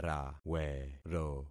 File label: "rawero", concat syllables; Affixing experiment